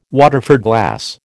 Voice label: "Waterford glass", American